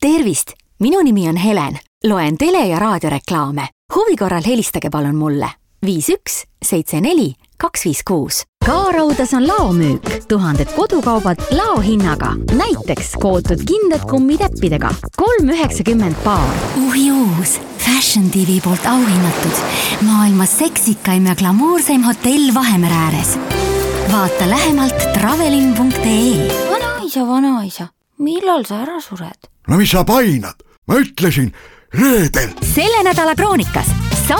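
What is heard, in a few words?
Estonian, female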